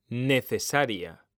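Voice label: "necesaria", Spanish; mekanta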